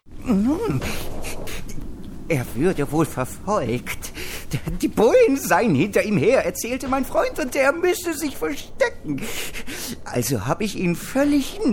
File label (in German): seltsam; schwarzesonne; dorianhunter; LOFT VOICES